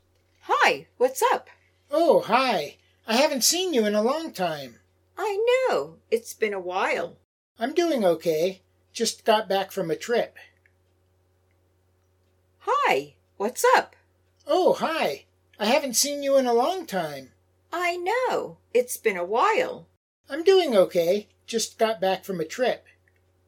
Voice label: Natural Dialog; Lesson 1